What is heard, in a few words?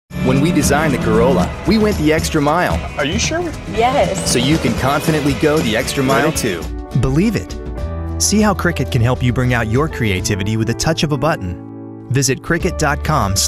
Midrange